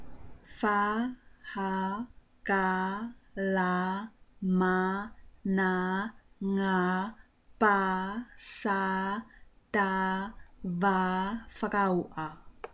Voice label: consonant sounds, Tongan